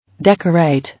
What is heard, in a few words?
"Decorate", English